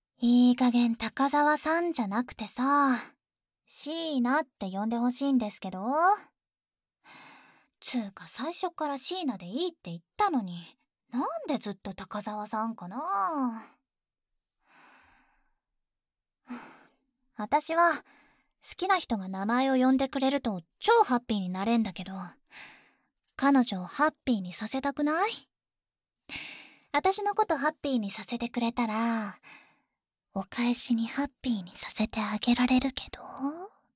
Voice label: 恋人ボイス 女子高生

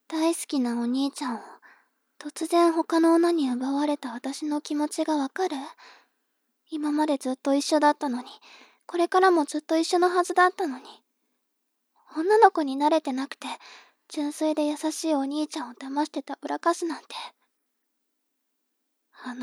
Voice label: 妹 台詞

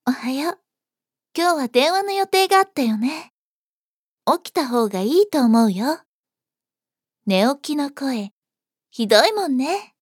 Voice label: 幼馴染, 幼馴染の女の子

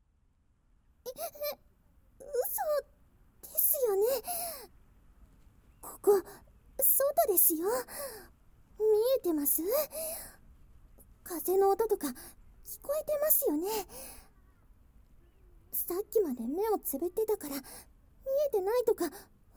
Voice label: 耳舐めのお願い; 愛の舐め癒し旅館

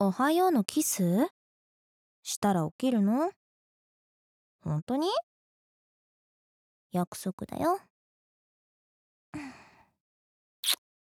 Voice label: おはようのキス? したら起きるの?, アラーム 音声

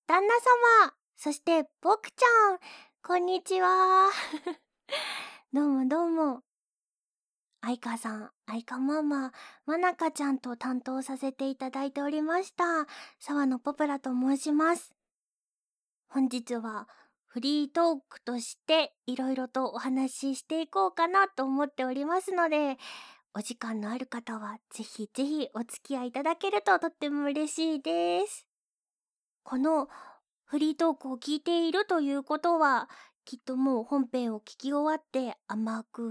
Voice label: フリートーク, ASMR

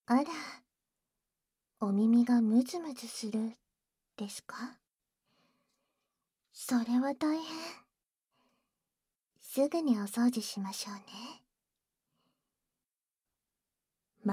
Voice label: お耳へご奉仕 (バイノーラルマイク収録 効果音あり)

